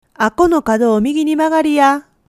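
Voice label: "ako", Japanese dialect, woman; hogen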